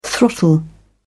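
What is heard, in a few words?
"throttle", British English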